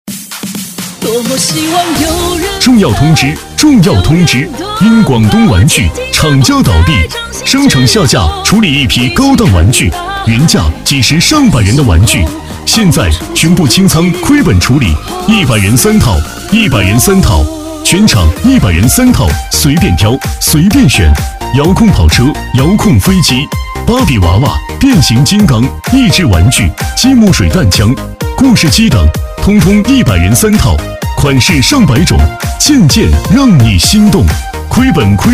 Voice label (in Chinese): 激情促销男; 玩具清仓大甩卖